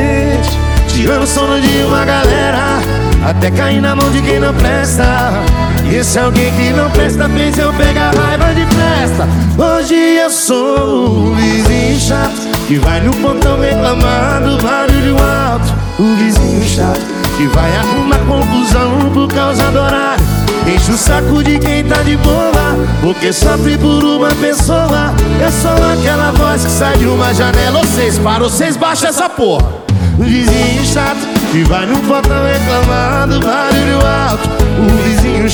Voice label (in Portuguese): locutor a voz magnifica